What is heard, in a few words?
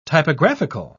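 "typographical", British English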